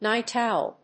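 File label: "night owl", English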